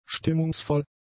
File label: "stimmungsvoll", German; adjectives